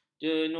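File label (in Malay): "jenu", Malay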